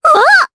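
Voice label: Vox Japanese; Annette; kingsraid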